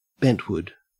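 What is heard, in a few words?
"bentwood", Australian English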